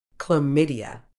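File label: "chlamydia", British English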